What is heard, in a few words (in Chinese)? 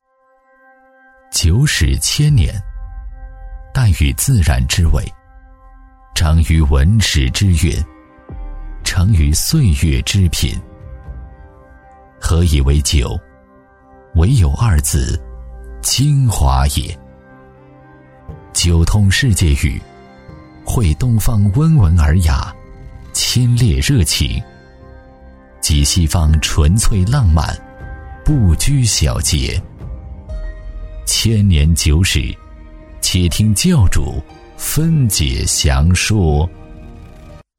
男, 宣传片, 品质感; 名酒展馆介绍; 记录讲解; 成熟厚重; 男B55